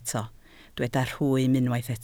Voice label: "rhwym", Welsh